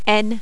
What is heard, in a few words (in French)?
"n", French